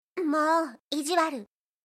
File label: anime, Irritated & Angry Phrases from Anime Girls